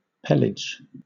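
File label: "pelage" English, British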